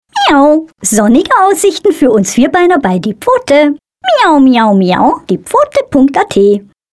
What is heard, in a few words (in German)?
wettersponsoring katze